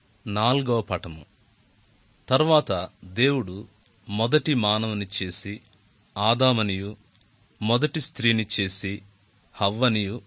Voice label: good news telugu

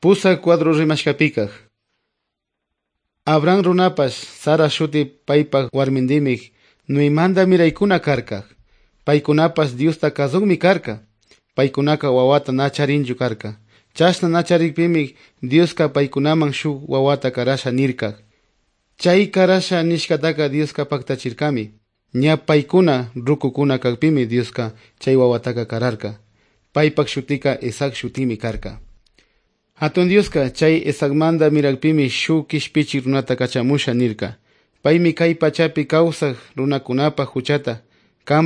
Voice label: good news quichua otovalo

